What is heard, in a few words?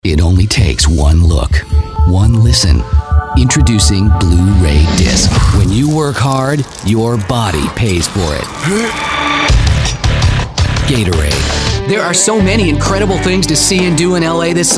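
commercial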